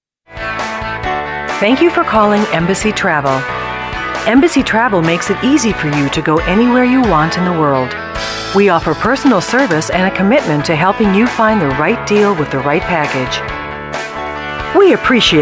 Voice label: On-hold (female)